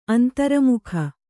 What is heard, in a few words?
"ಅಂತರ್ಮುಖ", Kannada